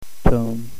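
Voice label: tone 7, Cantonese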